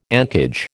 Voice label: "encage", American